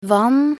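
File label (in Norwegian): "vann", Norwegian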